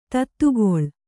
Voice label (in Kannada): "ಧಾತುಗೊಳ್", Kannada